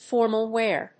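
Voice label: "formal wear", English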